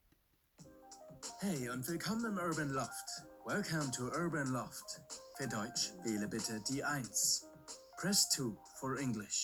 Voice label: Telefonansage

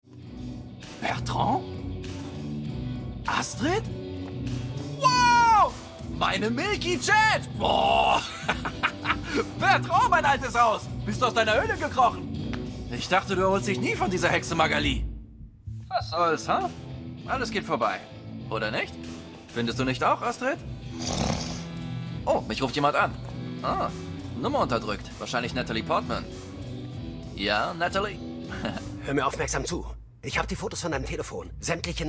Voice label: Synchron de ueberdreht; ARTE; Lets talk about Ex; LOFT VOICES

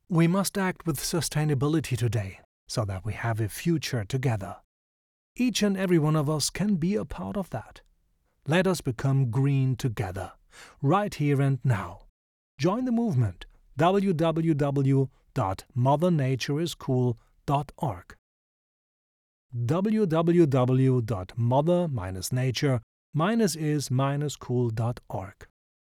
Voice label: englisch

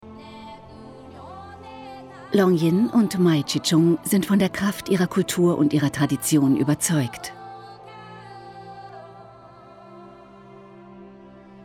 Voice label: Doku China Hunan

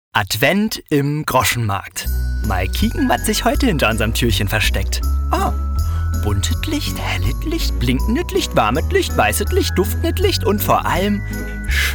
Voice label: Berliner Dialekt

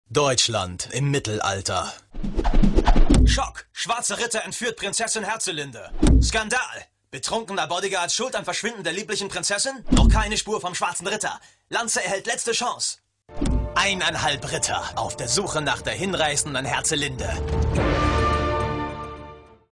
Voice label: werbung off pc spiel; LOFT VOICES

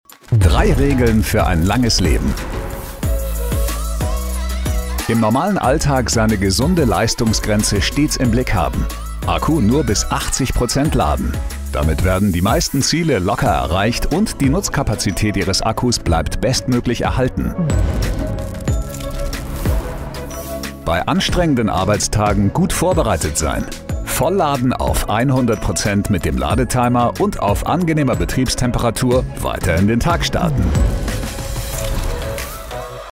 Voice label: Erklärfilm; Audi; LOFT VOICES

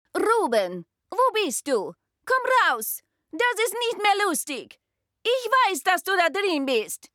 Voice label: Russischer Akzent; LOFT VOICES

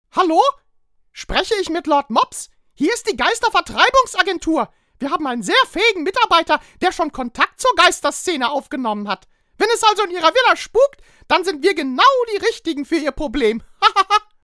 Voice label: Trickstimme; mickymaus; LOFT VOICES

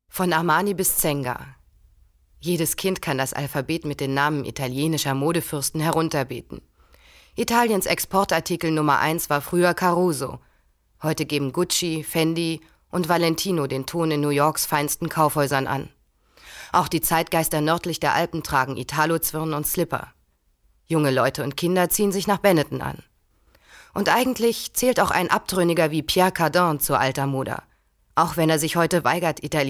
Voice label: kommentar

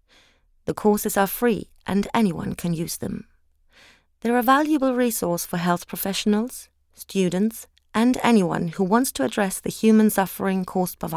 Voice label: E-learning Englisch Medical; Peacework

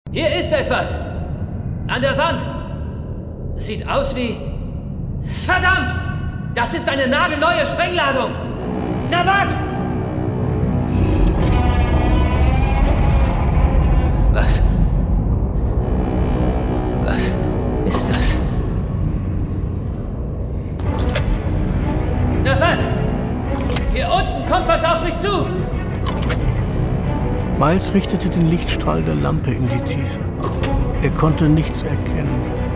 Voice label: Hörspiel Thriller; LOFT VOICES

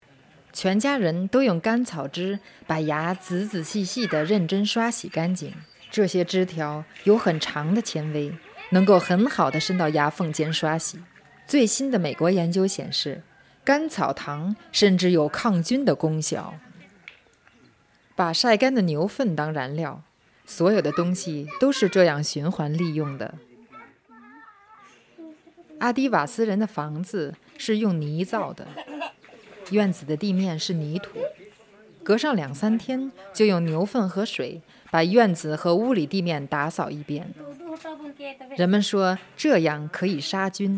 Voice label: Leben im Dorf, Doku, Chinese (Mandarin); voice; India

